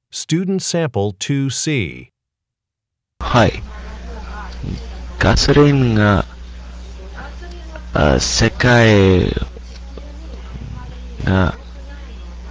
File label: japanese conversation